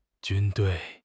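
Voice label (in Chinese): "军队", Chinese; HSK; HSK6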